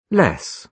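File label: "less", British English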